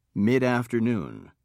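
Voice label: "midafternoon", British English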